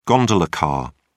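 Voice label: "gondola car", British English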